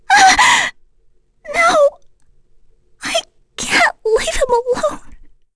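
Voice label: Vox Dead, English; FreyB; kings raid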